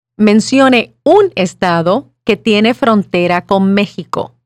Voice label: Spanish